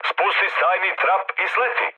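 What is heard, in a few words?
Serbian bailout mood med, speech; War Thunder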